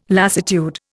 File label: "lassitude", Indian